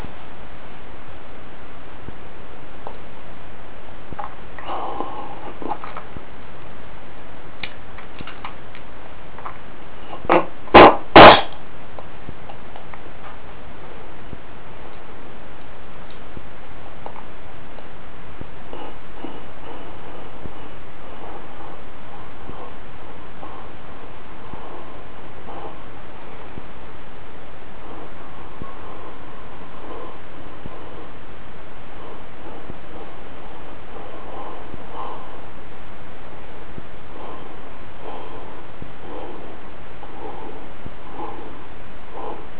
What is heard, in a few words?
sub vocal speech; sub vocal speech jack & jill & 1 to 20; recorded this with the “sonic ear” used by the hard-for-hearing. The gadget was 1 foot away from me